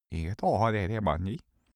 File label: male gibberish scandinavian